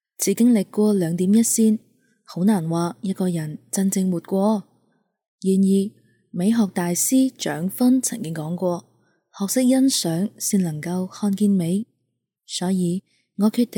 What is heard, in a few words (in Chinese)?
独白温柔独白